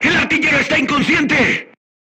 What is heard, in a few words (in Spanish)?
voice message gunlayer contused, Spanish